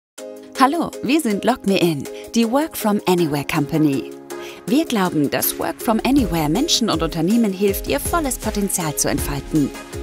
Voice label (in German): Erklaervideo lockerfrisch; LogMeIn